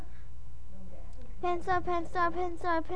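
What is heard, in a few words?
"pencil", spoken word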